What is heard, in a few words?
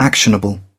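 "actionable", British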